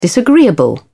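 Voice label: "disagreeable", British English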